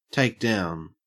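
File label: "takedown", Australian English; pronunciation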